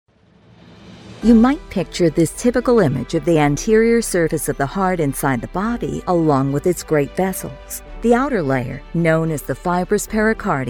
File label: medical narration female